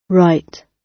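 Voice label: "write", British English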